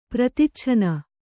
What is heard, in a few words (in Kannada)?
"ಪ್ರತಿಚ್ಛನ್ನ", kannada